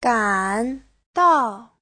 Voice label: "gan3 dao4", chinese